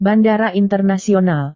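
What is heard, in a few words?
"bandara internasional", Indonesian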